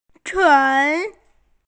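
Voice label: "tui3", Mandarin Chinese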